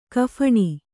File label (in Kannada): "ಕಫಣಿ", Kannada